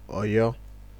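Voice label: "oyo", Nigerian Pidgin, male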